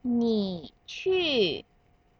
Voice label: Third Tone with Fourth Tone